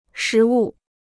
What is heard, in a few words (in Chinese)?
"实物", Chinese